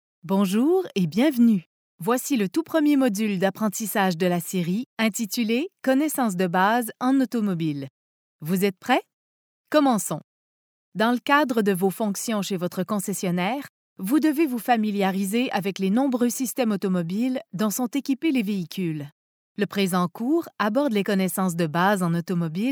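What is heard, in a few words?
French Canada, female, voice over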